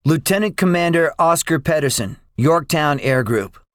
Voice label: debriefing, english; War Thunder

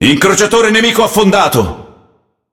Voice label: It Speech Destroy Enemy Cruiser; World Of Warships

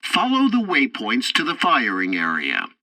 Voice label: tutorial tank arcade movement, english; War Thunder

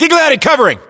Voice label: response covering, battlechatter us; localized english

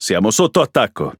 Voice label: Speech Pilots Bomber Under Attack, IT; World Of Warships

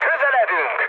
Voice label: near waypoint, mood low, speech hun; War Thunder

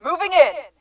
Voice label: moving in, Human; Titanfall 2; Pilots

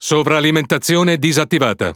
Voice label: Speech Consumable Forsage Normal, IT; World Of Warships

